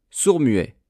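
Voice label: "sourd-muet", French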